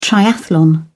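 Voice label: "triathlon", British English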